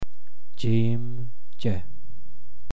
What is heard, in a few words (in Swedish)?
"jim", persiska